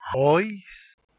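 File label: "Howis", Proto-Indo-European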